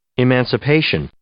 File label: "emancipation", English